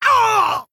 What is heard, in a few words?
Female Orc Death